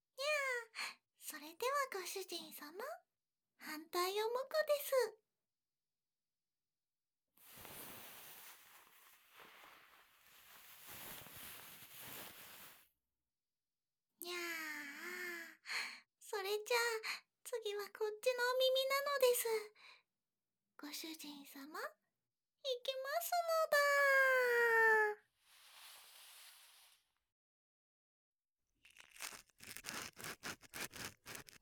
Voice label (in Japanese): 耳かき（左耳）, ASMR; 猫娘シロちゃんのにゃおにゃお耳かき; シロちゃんの耳かき